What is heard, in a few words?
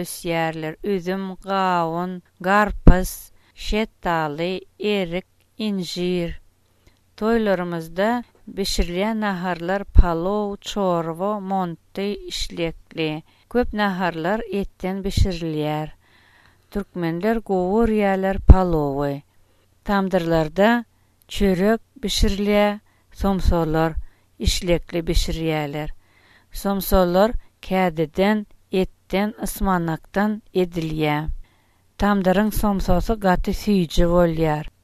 dialogue turkmenistan, turkmen